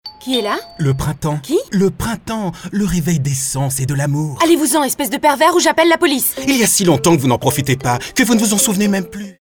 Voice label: female french travel comercial; ideasonora

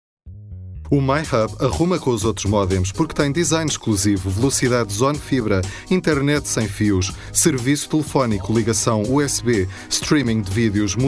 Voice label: male portuguese comercial; ideasonora

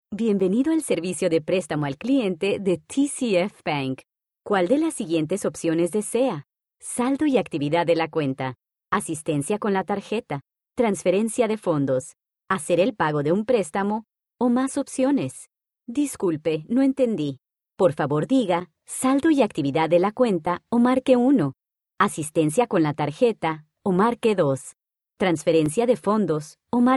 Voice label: female latam mexican comercial

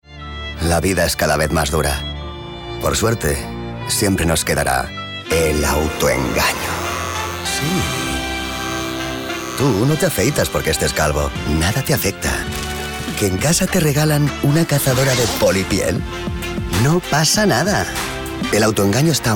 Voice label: male spanish tuna comercial; ideasonora

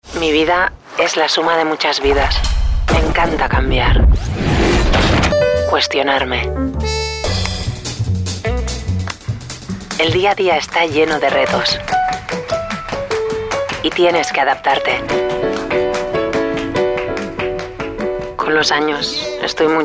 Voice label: female spanish car comercial; ideasonora

